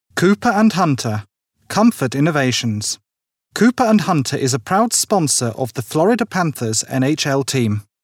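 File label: American English, male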